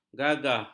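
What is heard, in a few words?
"gagah", Malay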